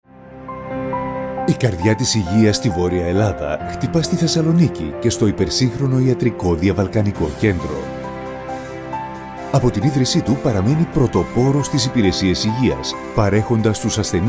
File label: medical informative